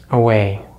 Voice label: "away", American English; easypronunciation